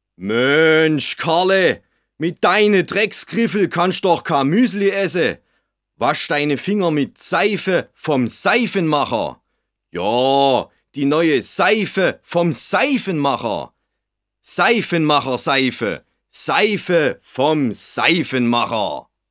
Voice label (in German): Werbespot Dialekt; Demo Werbespot 2 (mit Dialekt) (Regie: Willi Meyer)